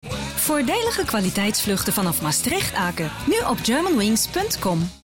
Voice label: nl; hamburg